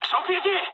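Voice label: Japanese bomb success mood high; War Thunder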